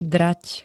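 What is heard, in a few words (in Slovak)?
"drať", Slovak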